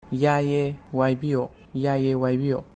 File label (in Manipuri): "Yaiye, waibiyo.", Manipuri; Yes, of course.